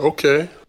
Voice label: "okay", expletives